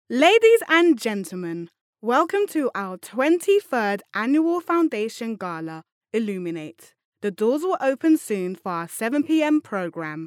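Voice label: English British, female, english british voice over talent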